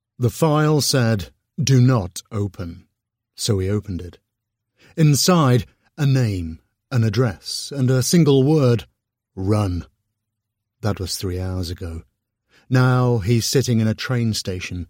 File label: Contemporary Thriller, audiobooks; British